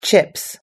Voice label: "chips", us-english